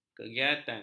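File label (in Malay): "kegiatan", Malay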